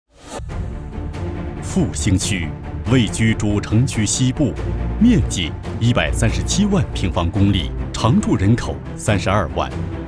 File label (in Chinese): 男 学校专题 中小学教师信息技术